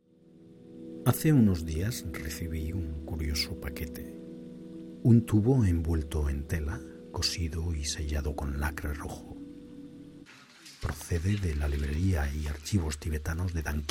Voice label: voice over sample documentary film v o Spanish; voz en off para documental de cine espanol